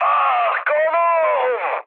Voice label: damaged pilot, mood high, speech tur; War Thunder